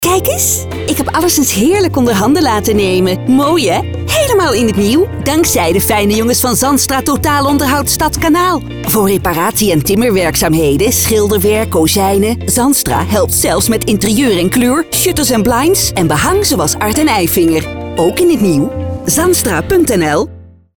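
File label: Dutch, female, commercial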